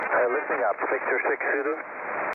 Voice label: 15m SSB; chuuk islands

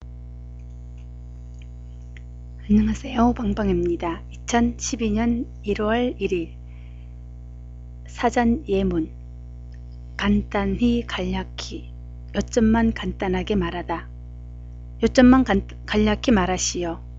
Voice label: Korean dictionary sample sentences

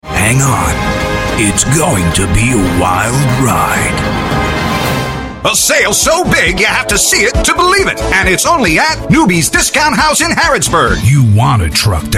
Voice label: voice overs commercials

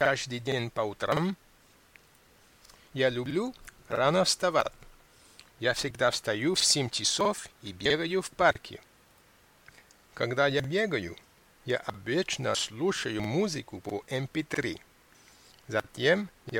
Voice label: russian pronunciation

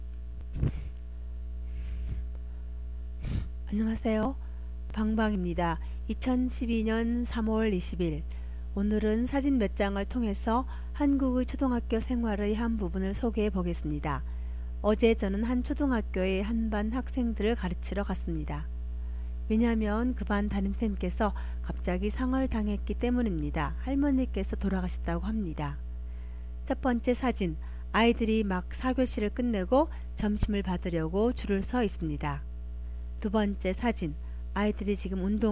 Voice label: korean primary school childrens view

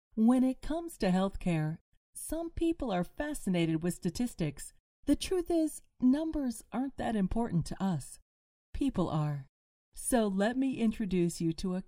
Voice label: commercial hospital

